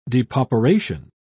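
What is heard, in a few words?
"depauperation", British English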